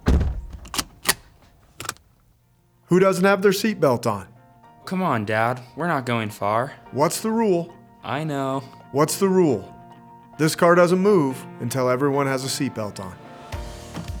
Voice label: Adult Radio